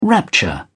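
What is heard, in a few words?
"rapture", British English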